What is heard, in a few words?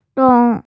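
"dong1", Mandarin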